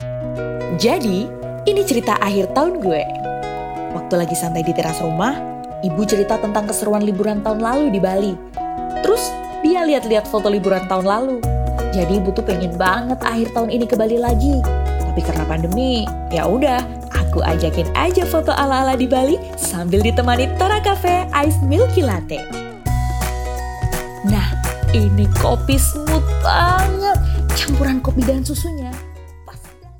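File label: INDONESIA casual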